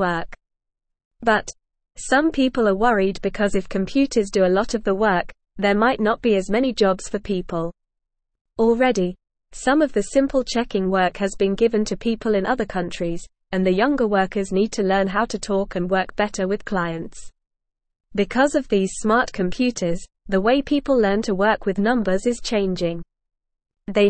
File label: English Beginner NORMAL Reading; English Newsroom; Smart Computers Changing How People Work with Numbers